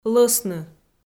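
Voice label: "лӧсны", Komi, female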